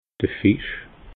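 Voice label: "fisch", Alsatian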